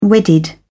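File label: "wedded", British English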